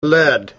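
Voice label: "leh1d", Proto-Indo-European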